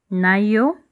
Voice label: fine, Lingala; Na yo?; (And you?)